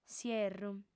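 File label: "s'ierru", female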